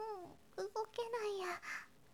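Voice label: girl, "mouugokenaiya"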